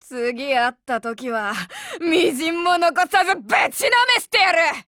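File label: RETREAT, Japanese; girlsfrontline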